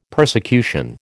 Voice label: "persecution", American